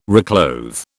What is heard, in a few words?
"reclothe", British